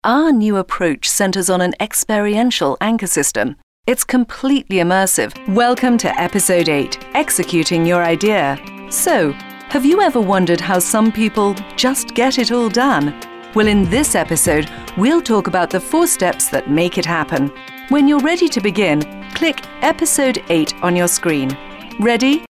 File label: New Immersive System Podcast, british voice